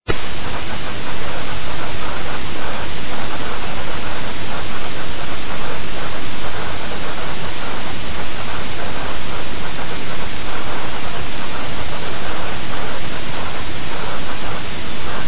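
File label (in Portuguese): Esqueci aniversário namoro, Desculpas, Telemensagens, female; Telemensagens 2017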